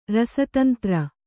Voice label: "ರಸತಂತ್ರ", Kannada